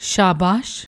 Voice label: "shabash", Punjabi; ਸ਼ਾਬਾਸ਼

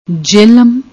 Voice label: "jehalaam", Punjabi; ਜਿਹਲਮ; جہلم; noun, masculine

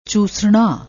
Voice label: "choosna", Punjabi; ਚੂਸਣਾ

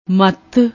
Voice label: "matt", Punjabi

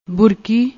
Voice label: "boorki", Punjabi

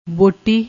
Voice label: "botti", Punjabi

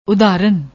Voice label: "Udharan", Punjabi

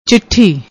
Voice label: "chithi", Punjabi; ਚਿੱਠੀ

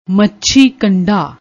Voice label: "machi kandaa", Punjabi

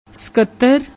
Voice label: "ਸਕੱਤਰ", Punjabi

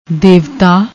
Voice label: "devta", Punjabi

